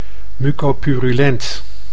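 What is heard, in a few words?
"mucopurulent", Dutch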